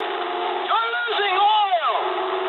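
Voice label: damaged oil mood high, speech English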